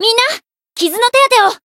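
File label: Voice heal, Japanese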